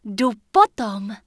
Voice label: Night Elf Female Open Fire; wowpreklad; czwow; NightElfVocalFemale

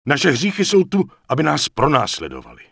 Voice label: Night Elf Male Pissed; wowpreklad; czwow; NightElfVocalMale

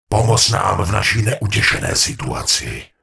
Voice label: Gnome Male Attack My Target; wowpreklad; czwow; GnomeVocalMale

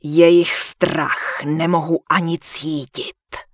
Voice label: Human Female Warrior NPC Greeting; wowpreklad; czwow

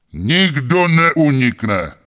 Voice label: Night Elf Male No; wowpreklad; czwow; NightElfVocalMale

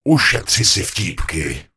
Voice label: Gnome Male No; wowpreklad; czwow; GnomeVocalMale

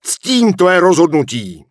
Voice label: Human Male Official Pissed; NPC; wowpreklad; czwow

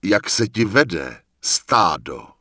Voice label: Night Elf Male Official NPC Greeting; wowpreklad; czwow

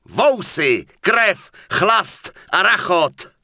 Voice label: Human Male Standard NPC Farewell; wowpreklad; czwow

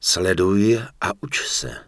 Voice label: Human Male You're Welcome; wowpreklad; czwow; HumanVocalMale

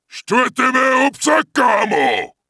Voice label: Wolfrider Pissed; wowpreklad; czwow

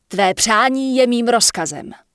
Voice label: Human Female Merchant Start Shopping; wowpreklad; czwow; NPC

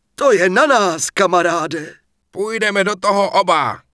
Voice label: Mortar Team Pissed; wowpreklad; czwow

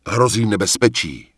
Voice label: Night Elf Male Flee; wowpreklad; czwow; NightElfVocalMale

